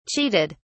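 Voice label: "cheated", British English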